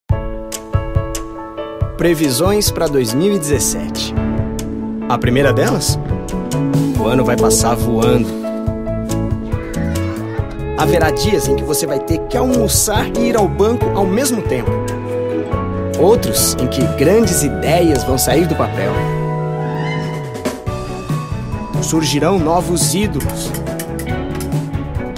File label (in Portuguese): Emocional; Caixa